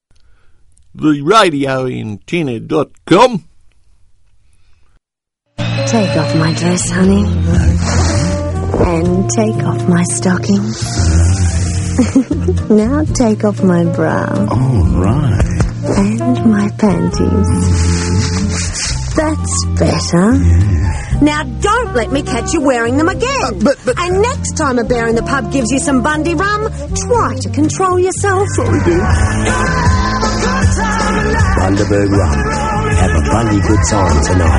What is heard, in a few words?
Classic Aussie commercials; Aircheck; Bundy; 1989